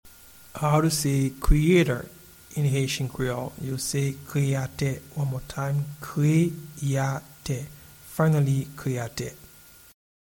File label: Creator in Haitian Creole Kreyate, audio pronunciation; “Creator” (English) translates as “Kreyatè” in Haitian Creole